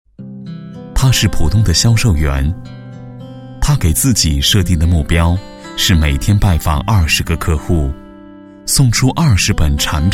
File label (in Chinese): 男 人物, 国语男声配音; 丽州卡温情